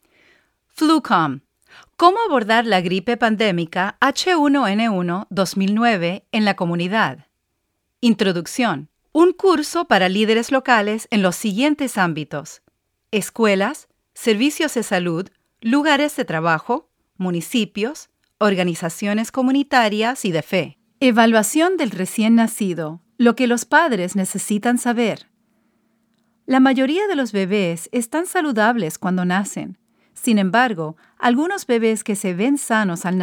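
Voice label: ELearning Spanish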